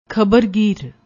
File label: "khabargeer", Punjabi